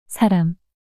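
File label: "사람" Korean, female; 단어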